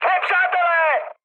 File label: enemy detected, speech cze, mood high